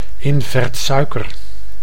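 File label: "invertsuiker", Dutch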